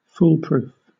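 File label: "foolproof" British English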